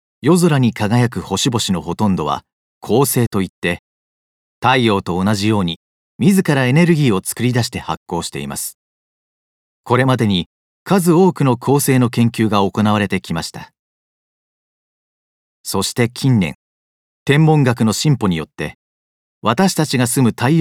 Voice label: 解説ナレーション

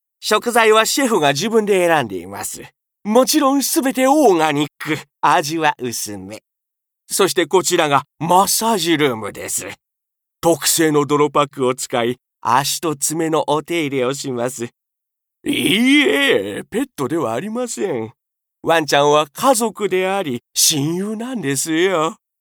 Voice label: 腰の低いマネージャー

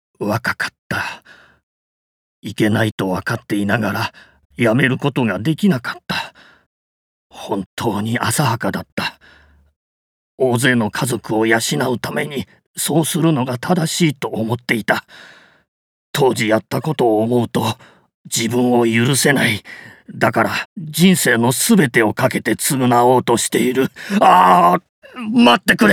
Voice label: 後悔老人

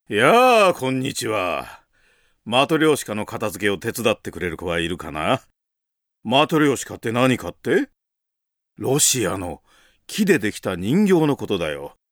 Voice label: 子供達に語りかけ

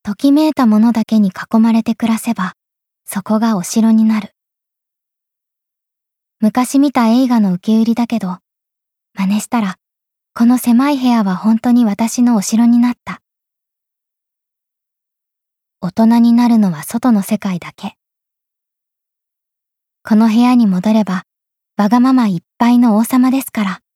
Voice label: ささやき系CM

